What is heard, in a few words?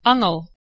"angel" Anglo Saxon